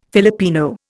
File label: "Filipino", Indian